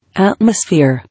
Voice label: "Atmosphere", English

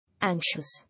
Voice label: "Anxious", English

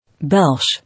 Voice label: "Belch", English

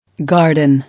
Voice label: "Garden", English

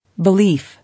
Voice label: "Belief", English

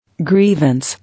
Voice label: "Grievance", English

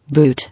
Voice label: "Boot", English

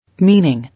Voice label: "Meaning", English

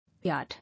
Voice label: "Yard", English

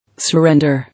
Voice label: "Surrender", English

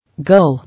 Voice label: "Goal", English

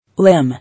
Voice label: "Limb", English

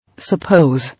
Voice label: "Suppose", English

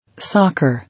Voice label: "Soccer", English

